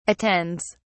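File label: "attends", English, British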